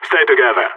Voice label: radio bot regroup; Counter Strike; CS:GO; phoenix